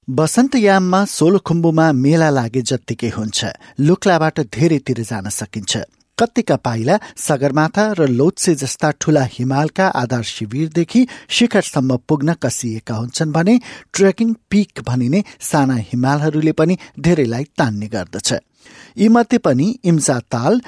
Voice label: Nepali